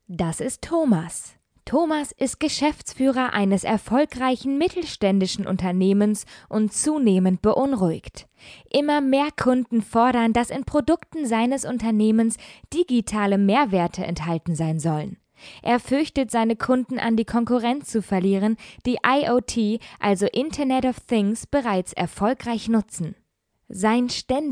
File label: GERMAN